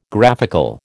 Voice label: "graphical", American